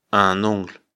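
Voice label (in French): "ongle", French; afrikalan; lecture fr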